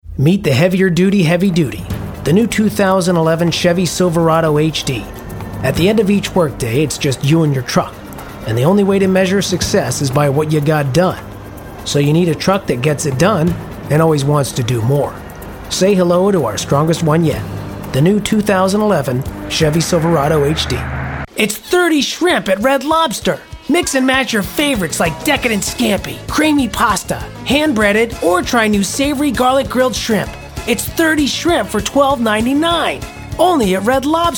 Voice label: Commercial, voiceover